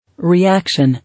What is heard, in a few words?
"Reaction", English